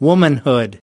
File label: "womanhood", us